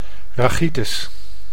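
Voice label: "rachitis", Dutch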